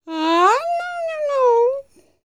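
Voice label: dialog, female spoken; ILIO; Vocal Planet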